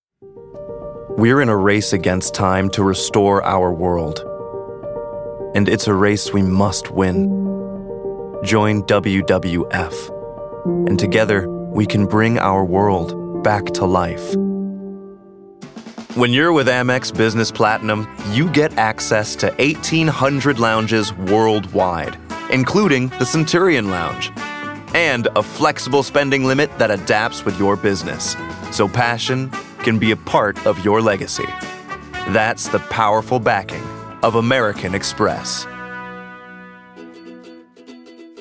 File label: Commercial